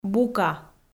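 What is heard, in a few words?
"бука", Komi-Zyrian, female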